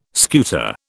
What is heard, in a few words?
"scuta", British